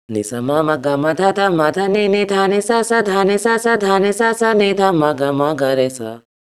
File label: voice, pitchshift; celemony melodyne